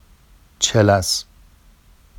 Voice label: “ʧelas”, Vafsi; چـِلَس

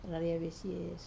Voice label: "rarai vesie" hello, Wamesa

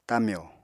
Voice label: "tamyo", apatani

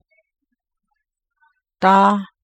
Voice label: in front of meeting before for, Navajo

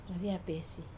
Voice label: "raria pesi", someday, Wamesa

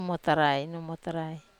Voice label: "muotarai", Wamesa

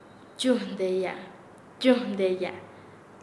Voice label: Nasa Yuwe; dividir; paez

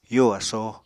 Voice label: "yo asóo", Apatani; Material Culture

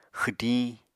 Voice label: "xwdiñ", Apatani

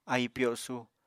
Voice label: "ahi pyosu", Apatani

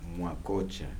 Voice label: you-all-thank, Kibembe

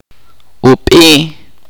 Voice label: "gusano", chorti

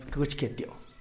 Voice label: "pkïtxketioo", type of bird, tlacochahuaya; animals

